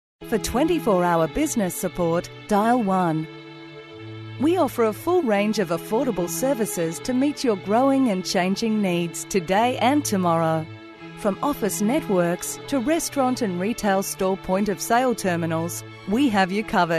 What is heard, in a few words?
australian female, corporate